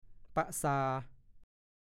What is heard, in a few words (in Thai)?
"ปะซา", ภาษาโคราช; พุทรา